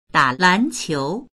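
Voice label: "da3lan2qiu2", Mandarin Chinese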